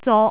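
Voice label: "zok3", Cantonese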